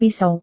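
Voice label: "pisau", Indonesian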